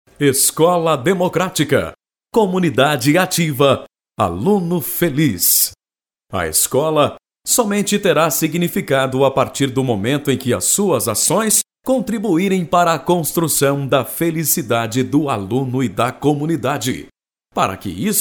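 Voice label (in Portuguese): locução institucional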